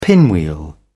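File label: "pinwheel", British English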